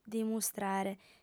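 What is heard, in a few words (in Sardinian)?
"dimustrare", female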